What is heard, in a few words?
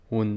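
"un", Catalan, male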